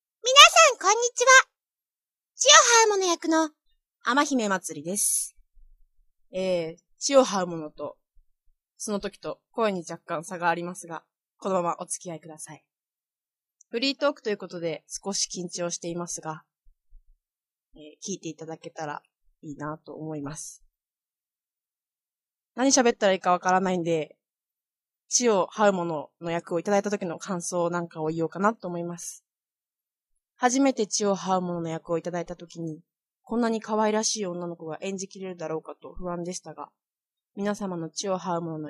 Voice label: free talk